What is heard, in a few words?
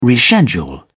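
"reschedule", British English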